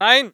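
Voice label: voice german infantry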